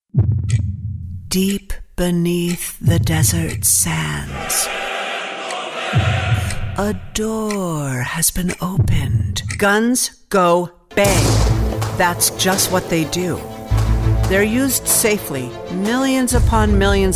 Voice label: commercial, voiceover